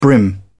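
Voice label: "brim", British English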